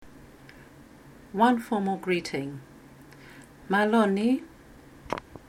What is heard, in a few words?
Formal greeting of hello, Tokelau; 2022 Pacific Language Weeks